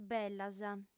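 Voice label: "bellas", lingua sarda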